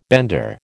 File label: "bender", American